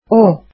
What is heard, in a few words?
"she", azeri